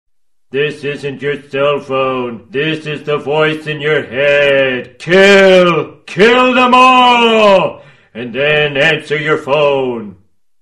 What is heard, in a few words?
answer phone ringtone; homer